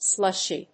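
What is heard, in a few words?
"slushie", English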